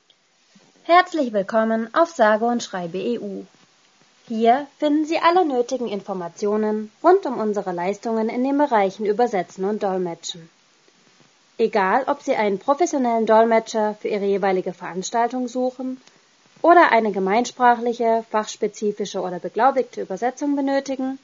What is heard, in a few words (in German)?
stimmprobe de